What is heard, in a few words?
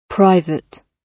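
"private", British English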